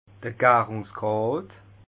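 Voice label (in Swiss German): "garungsgrad", Alsatian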